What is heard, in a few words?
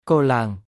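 Khmer "គរឡើង"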